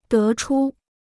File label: "得出", Chinese